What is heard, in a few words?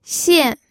"xian4", pinyin